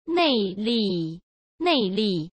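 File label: "nei4 li4", Mandarin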